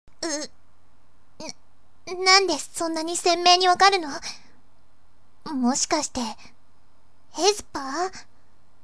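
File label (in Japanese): サンプルボイス, 17歳 元気がとりえの高校二年生、感情表現が素直な子; 毎朝通る街角の花屋のお兄さんに恋をしている; ある日の ある街角の ある二人の恋の物語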